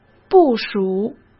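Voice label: "部署", Mandarin Chinese; hsk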